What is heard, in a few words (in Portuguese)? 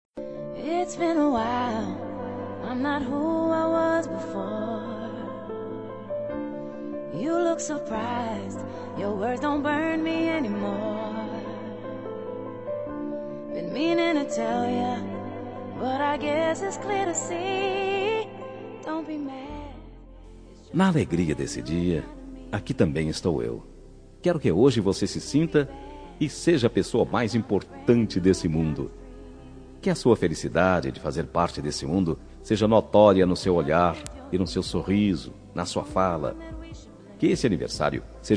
Aniversario Sobrinha Masculino, telemensagem